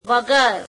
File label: "without", gujarati; વગર; veguer